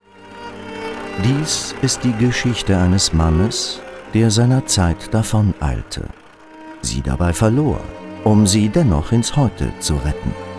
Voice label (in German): OFF CH DE